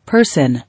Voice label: "Person", English